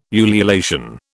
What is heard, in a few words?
"ululation", British